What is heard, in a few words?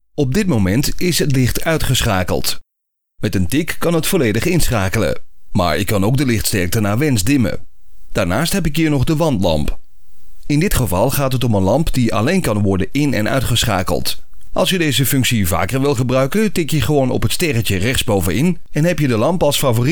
Explainer dutch